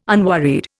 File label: "unworried", Indian